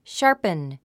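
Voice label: "sharpen", English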